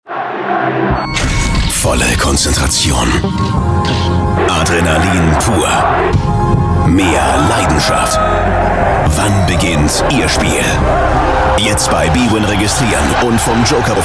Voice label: Werbung; BWin

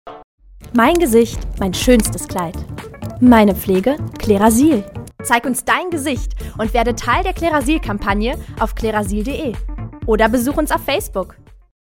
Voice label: Sprechprobe Werbung

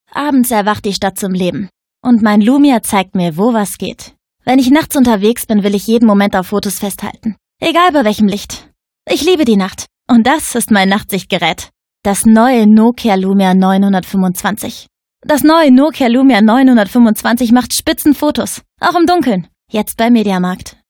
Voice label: Werbespot; Nokia

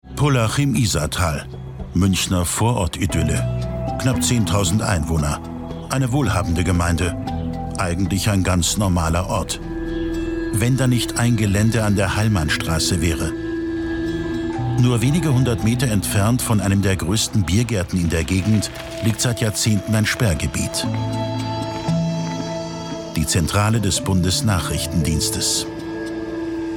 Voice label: Doku; BND Pullach

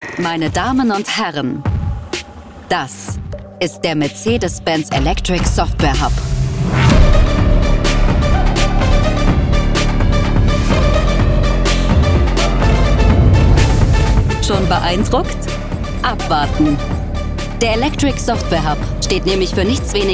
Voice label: Imagefilm; Mercedes-Benz

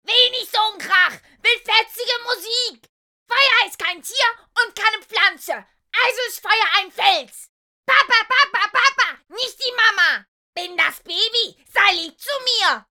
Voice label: Charakter frech

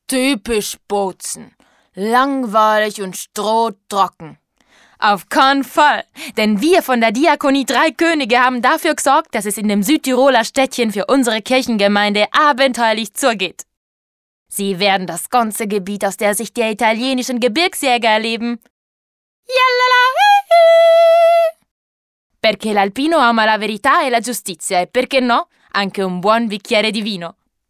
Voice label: Dialekt italienisch

